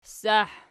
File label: "ssah", Archi